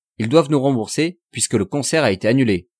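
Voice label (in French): ils doivent nous rembourser puisque le concert a, French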